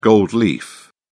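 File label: "gold leaf", British English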